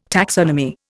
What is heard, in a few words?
"taxonomy", Indian